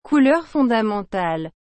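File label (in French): "couleurs fondamentales", French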